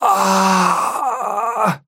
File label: pain low health warning; Trapper